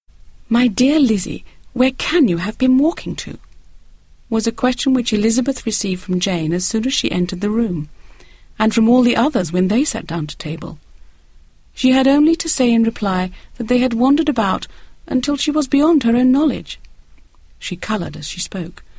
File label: Audiobook Read; Austen